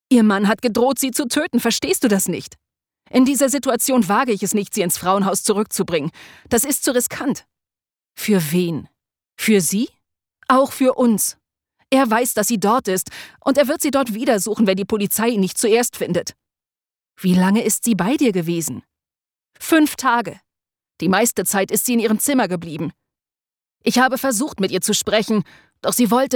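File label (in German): Hoerbuch, Krimi; Mord in Goeteborg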